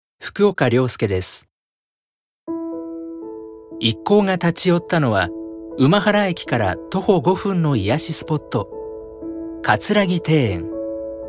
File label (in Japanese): ノーマル